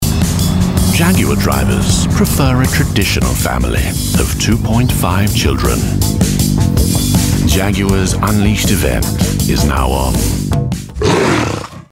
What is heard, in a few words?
Upper Class Snarl Luxury Brand; JAGUAR; Mi5